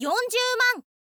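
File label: "yonzyuuman", Japanese, girl; voice; info girl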